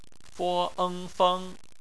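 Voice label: "feng" bopomofo, Chinese; phonetics; bpmf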